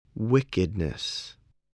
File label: "wickedness", voice, english